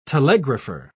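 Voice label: "telegrapher", British English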